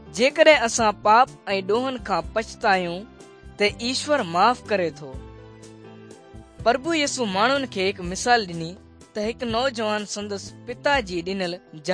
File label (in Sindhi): good news sindhi dukslinu, drama; sindhi pakistan nt drama